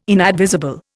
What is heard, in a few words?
"inadvisable", Indian